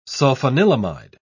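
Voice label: "sulfanilamide", British English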